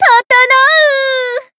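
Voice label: Voice healed, Japanese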